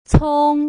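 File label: "cong", Mandarin Chinese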